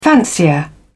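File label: "fancier", British English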